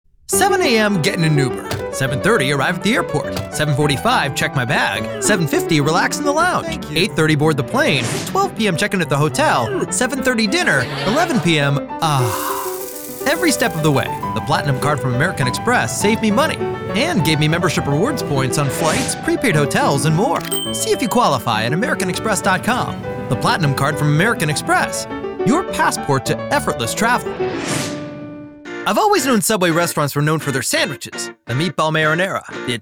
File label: Commercial